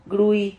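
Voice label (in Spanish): "grui", Spanish syllable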